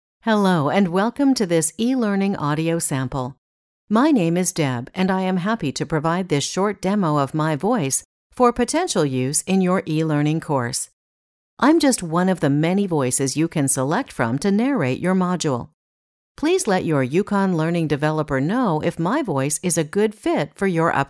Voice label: Formal, voice talent